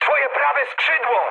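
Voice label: right wing mood high, speech Polish; War Thunder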